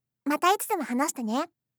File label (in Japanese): "mata hanashite ne", voice, game; kiku